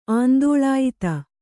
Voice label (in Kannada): "ಆಂದೋಳಾಯಿತ", kannada